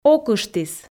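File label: "окыштіс", Komi, female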